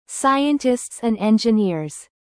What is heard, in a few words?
scientists and engineers, US; Study, education, research